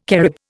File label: "Carib", Indian